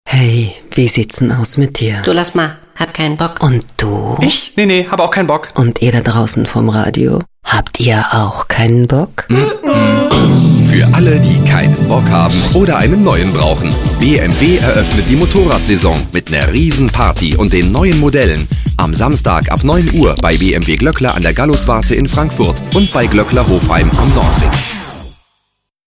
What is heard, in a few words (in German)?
Automotive Radiowerbung